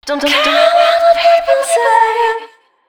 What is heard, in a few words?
female 130, Dance Ultra Voice, short hooks; Mutekki Media; Dance Ultra Voice VOL-1